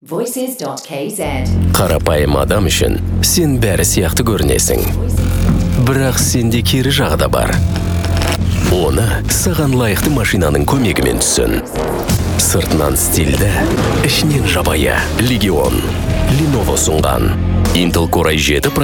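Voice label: Kazakh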